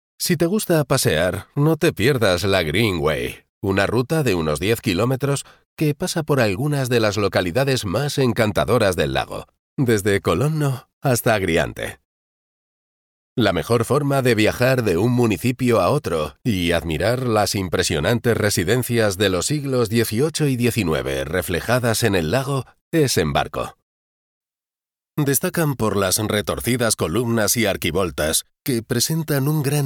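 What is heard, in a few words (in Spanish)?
audioguías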